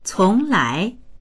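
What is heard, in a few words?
"cong2lai2", Mandarin Chinese; cónglái; 从来; これまでずっと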